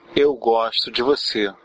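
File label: I love you, Brazilian Portuguese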